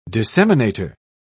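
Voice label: "disseminator", British English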